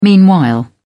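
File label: "meanwhile", British English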